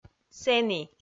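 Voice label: "сэні", Komi, female; kpv audio dict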